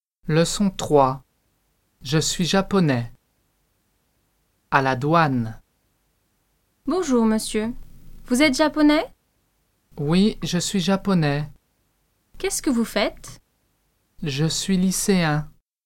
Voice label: Dialogue a la douane

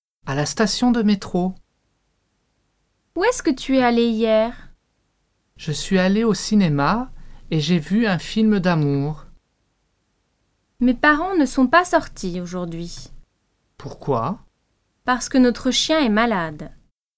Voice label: Dialogue a la station de metro

